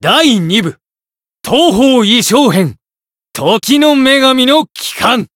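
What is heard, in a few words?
Voice Japanese; shigure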